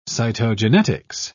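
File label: "cytogenetics", British English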